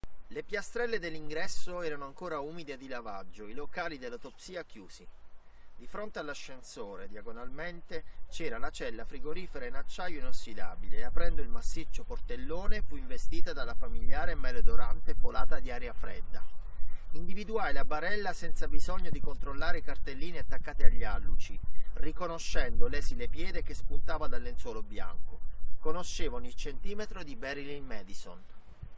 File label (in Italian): Esterna VideoMic Me con antivento Piano Americano; nerdvana